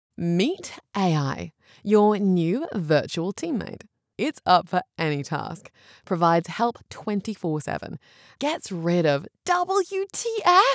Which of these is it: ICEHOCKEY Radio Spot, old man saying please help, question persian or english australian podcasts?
english australian podcasts